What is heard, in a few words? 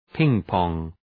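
"ping pong", English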